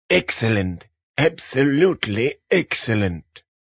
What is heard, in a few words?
"Excellent", Exclamation, Male; VoiceBox